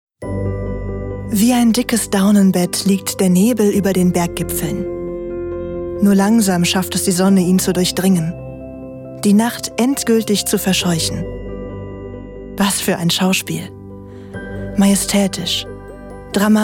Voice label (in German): Werbung Oesterreich